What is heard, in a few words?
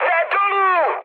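aircraft destroyed, Czech, mood high; speech; War Thunder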